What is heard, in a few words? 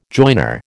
"joiner", American